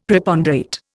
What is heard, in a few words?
"Preponderate", Indian; Dictionary